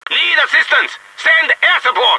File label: air support, taunt; phx new taunts pack; hunters pack